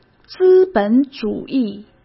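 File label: "资本主义", Mandarin Chinese; chinese-flashcards; hsk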